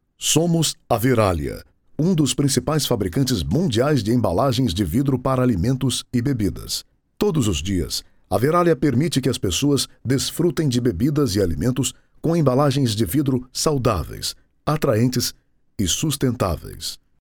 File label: portuguese brazilian podcasts